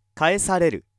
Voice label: male